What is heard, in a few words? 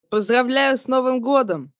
Russian New Year, 1 boy, 11 yrs., Russian, (Happy New Year), human voice; Occasions; child; kid; holiday; celebration; speak; talk; VoiceBox